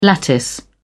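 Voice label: "lattice", British English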